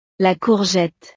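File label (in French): "la courgette", French; verduras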